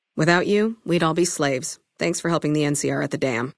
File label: femaleadult hello, dialogue; Fnv